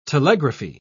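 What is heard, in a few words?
"telegraphy", British English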